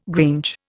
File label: "grange", Indian